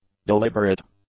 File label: "deliberate", english; adjectives